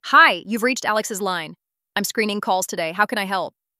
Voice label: formal